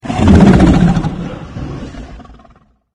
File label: chatter; slender; guardian